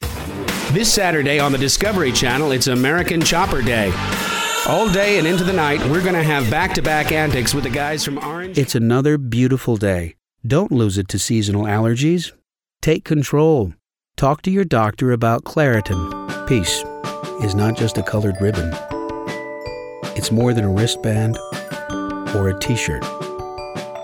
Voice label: Commercial

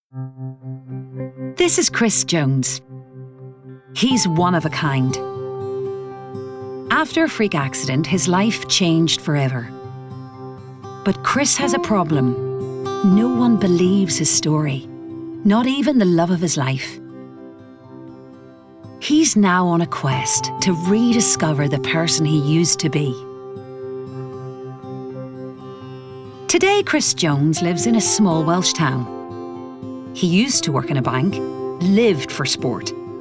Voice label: Narration

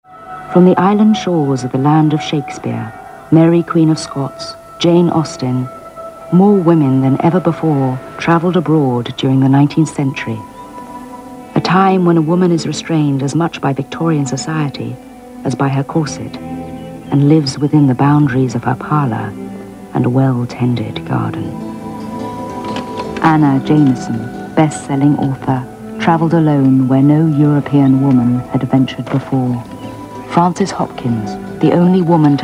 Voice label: Narration

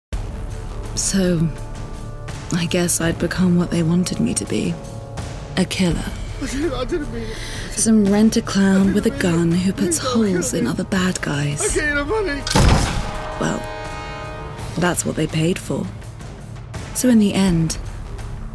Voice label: CHARACTER VOICE REEL

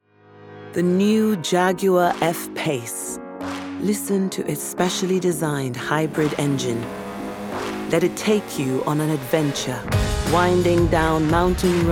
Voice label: Commercial

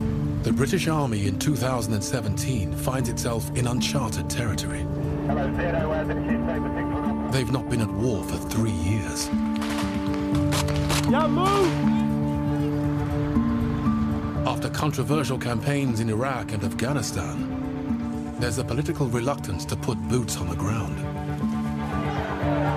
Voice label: Documentary

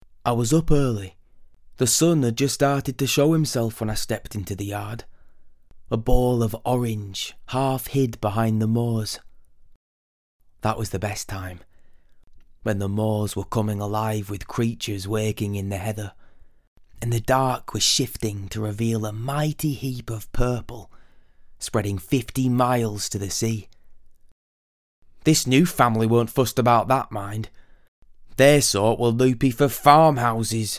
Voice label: Audiobook

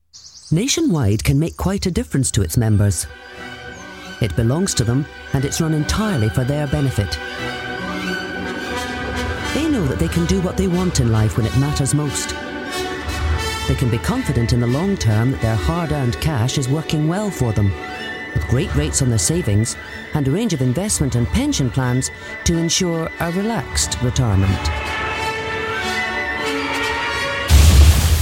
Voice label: Clear, Commercial, Epic; Nationwide

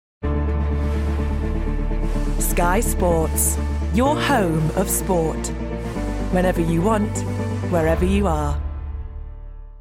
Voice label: Commercial Reel

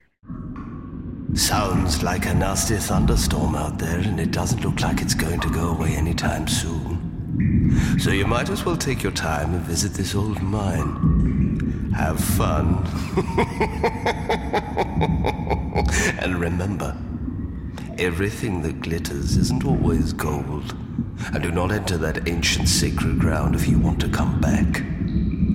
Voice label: Evil

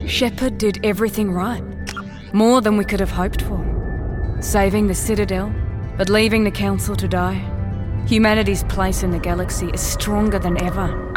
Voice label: Australian; Saving Citadel

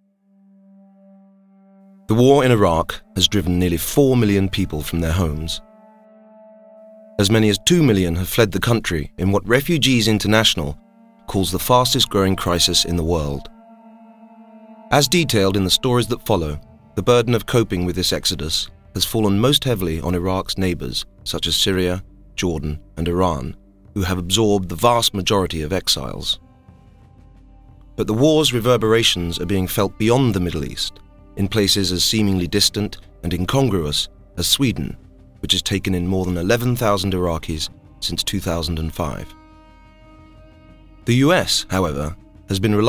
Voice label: Documentary

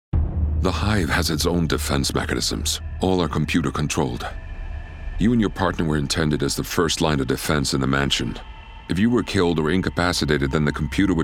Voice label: Voicetape Gaming; Resident Evil

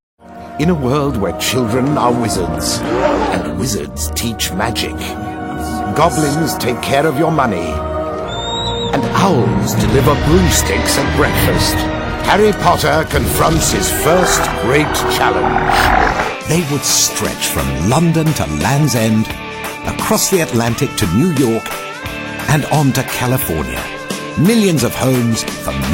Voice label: Commercials

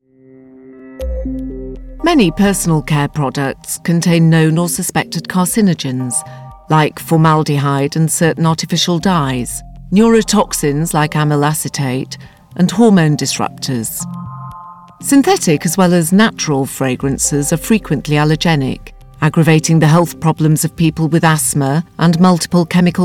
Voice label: Documentary

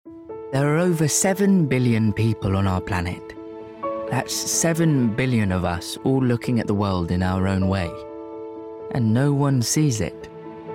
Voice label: Commercials

